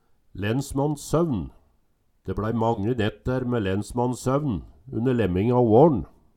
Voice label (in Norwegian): "lensmannssøvn", Numedalsmål; Ordet er berre nytta i eintal.